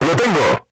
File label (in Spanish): voice message target destroyed, Spanish